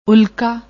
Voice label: "ulka", Punjabi; ਉਲਕਾ

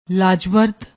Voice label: "laajvard", Punjabi

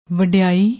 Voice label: "vadai", Punjabi

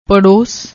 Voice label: "parross", Punjabi

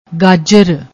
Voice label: "gajr", Punjabi

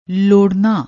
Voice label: "lorrna", Punjabi

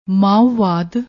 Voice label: "mauuwadd", Punjabi; ਮਾਓਵਾਦ

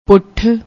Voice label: "puth", Punjabi

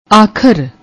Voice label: "akhhar", Punjabi

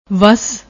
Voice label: "vass", Punjabi; ਵੱਸ; وسّ

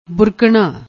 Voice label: "burkanna", Punjabi

